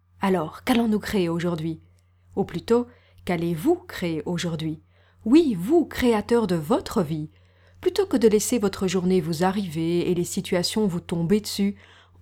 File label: meditation